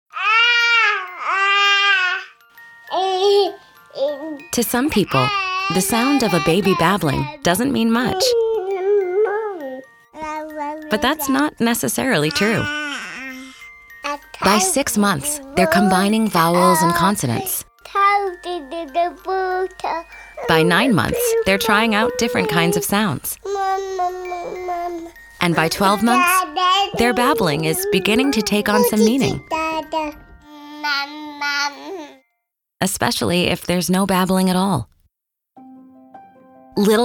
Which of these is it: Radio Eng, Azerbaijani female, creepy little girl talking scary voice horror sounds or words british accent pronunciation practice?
Radio Eng